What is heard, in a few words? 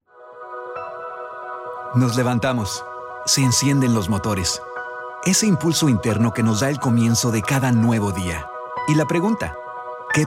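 M, Spanish Latin America, male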